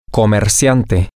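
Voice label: business person, Spanish, male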